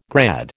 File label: "grad", American